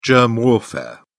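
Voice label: "germ warfare", British English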